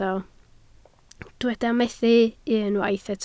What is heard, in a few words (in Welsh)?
"methu", Welsh